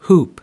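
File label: "hoop", American English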